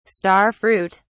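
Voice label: "star fruit", British English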